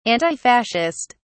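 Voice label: "antifascist", British English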